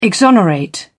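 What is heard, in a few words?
"exonerate", British English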